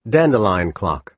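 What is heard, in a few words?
"dandelion clock", American English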